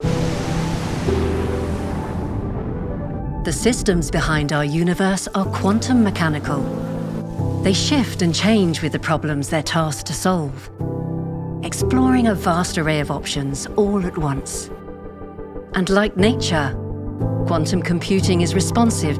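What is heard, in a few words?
corporate, British voice; Google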